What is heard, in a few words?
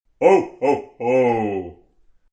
Ho Ho Ho, Santa says Ho Ho Ho; Yelling; Voice